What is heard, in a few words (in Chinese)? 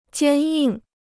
"坚硬", Chinese